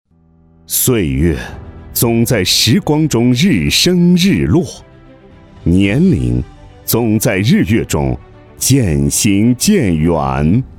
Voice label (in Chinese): 男 专题 养老产业